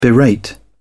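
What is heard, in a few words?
"berate", English, British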